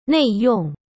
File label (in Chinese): "内用" Chinese